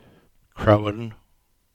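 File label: "Crowan", English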